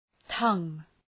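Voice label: "tongue", English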